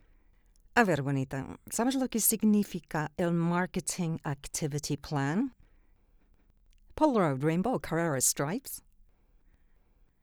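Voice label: doblaje, British English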